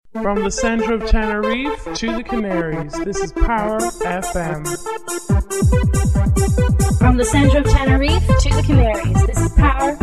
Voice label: female, jingles; from the centre of tenerife